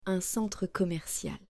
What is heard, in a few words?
"un centre commercial", French